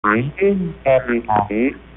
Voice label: Greetings Everybody Normal, voder